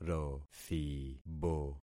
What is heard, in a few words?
"rothibo", concat syllables; Affixing experiment